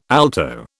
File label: "alto", British